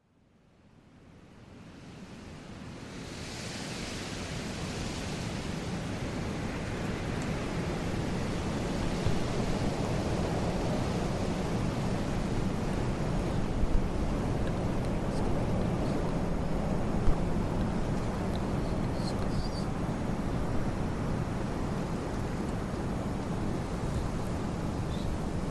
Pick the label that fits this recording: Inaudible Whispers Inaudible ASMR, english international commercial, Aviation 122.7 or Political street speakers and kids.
Inaudible Whispers Inaudible ASMR